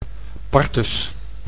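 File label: "partus", Dutch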